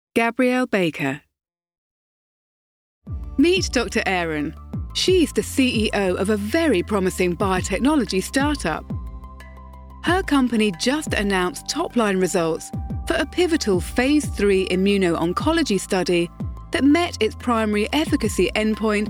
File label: Corporate